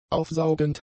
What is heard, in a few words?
"aufsaugend", German; adjectives